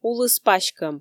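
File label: "улыс паськӧм" Komi, female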